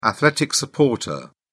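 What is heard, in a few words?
"athletic supporter", English, uk British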